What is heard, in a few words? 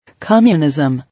"Communism", English